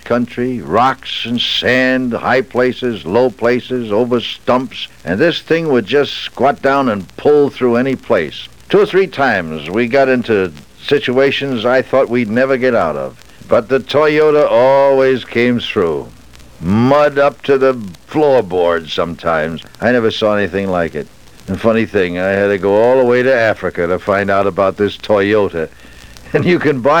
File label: Cruiser ad